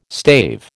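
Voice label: "stave", American English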